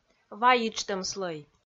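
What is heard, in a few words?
"ва йиджтӧм слӧй", Komi, female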